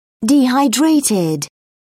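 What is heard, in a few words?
"dehydrated", British English, dictionary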